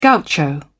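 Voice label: "gaucho", British English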